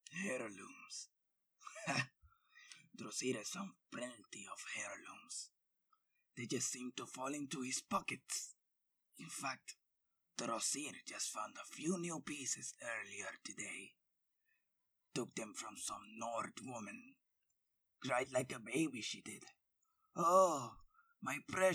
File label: khajiit voice